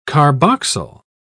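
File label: "carboxyl", British English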